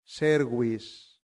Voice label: "servis", latin; santillana

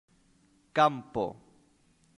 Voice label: "campo", Latin; santillana

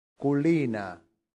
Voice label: "culina", latin; santillana

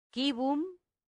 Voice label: "cibum", latin; santillana

